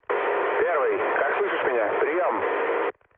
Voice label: chat, speech ru